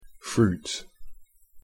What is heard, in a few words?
"fruit", English